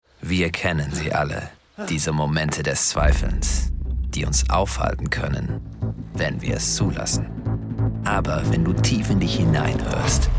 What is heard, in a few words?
German Narration Voicereel